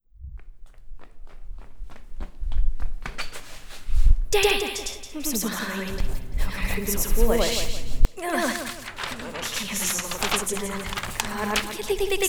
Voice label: audio narrative